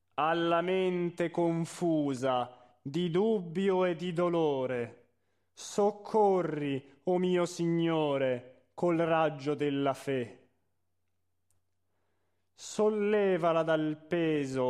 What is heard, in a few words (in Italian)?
espressivo